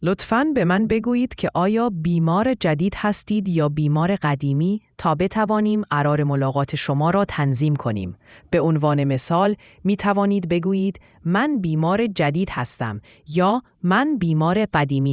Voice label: persian